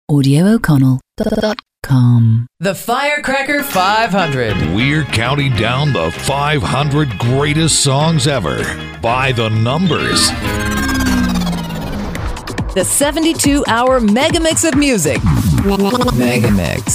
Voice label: radio imaging production